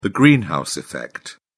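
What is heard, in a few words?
"greenhouse effect", British English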